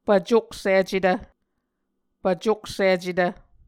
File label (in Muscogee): "pvcoksicetv", Muscogee